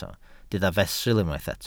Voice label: "fesul", Welsh

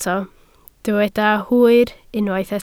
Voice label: "hwyr" Welsh

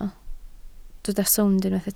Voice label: "sownd", Welsh

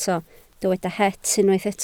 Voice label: "het" Welsh

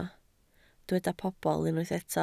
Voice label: "pobl", Welsh

